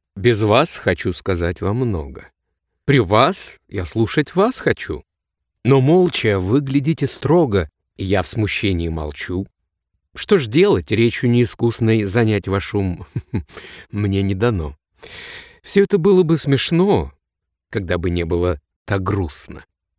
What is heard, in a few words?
russian podcasts